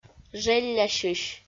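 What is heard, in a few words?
"желлясьысь" Komi, female; audio dict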